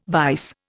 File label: "vise", Indian